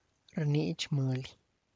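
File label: give money, Tlacochahuaya Zapotec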